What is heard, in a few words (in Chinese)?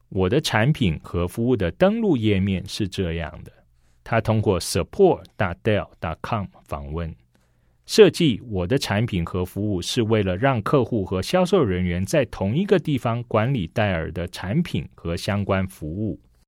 CHINESE